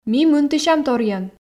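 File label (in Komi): ми мынтысям торйӧн, Komi, female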